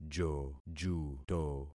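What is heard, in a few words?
"jojuto", concatenated syllables; Affixing experiment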